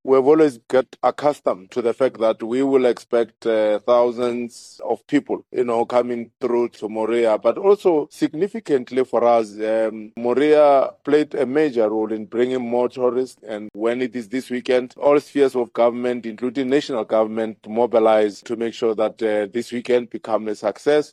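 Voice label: English; Moria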